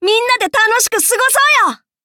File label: upgrade, ja; cookie run